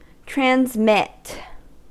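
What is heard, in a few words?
"transmit", American English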